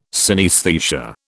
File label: "synaesthesia", British